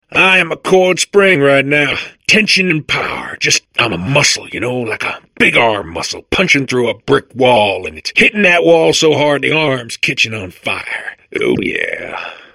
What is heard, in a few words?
babble; portal2